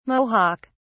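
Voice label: "mohawk", British English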